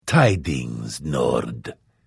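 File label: Hello, Vo; Morrowind GOTY GOG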